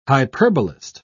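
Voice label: "hyperbolist", British English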